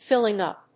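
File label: "filling up", English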